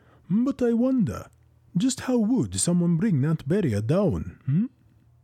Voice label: Tyrannical dwarf antagonist with malevolent authority, cunning intelligence, and dwarven gravitas, Audiobook Villain; Baron Uld